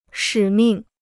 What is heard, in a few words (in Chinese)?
"使命", Chinese